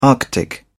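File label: "arctic", English, British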